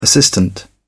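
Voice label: "assistant", British English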